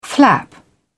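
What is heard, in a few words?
"flap", British English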